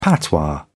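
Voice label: "patois", British English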